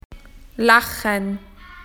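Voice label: "to laugh", Australian English; PictureDictionary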